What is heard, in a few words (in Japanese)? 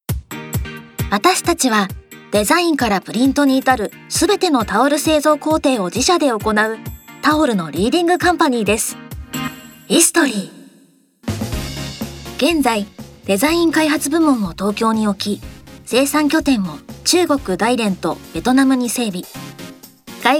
ナレーション